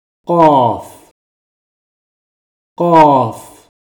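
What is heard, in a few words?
Qāf, Arabic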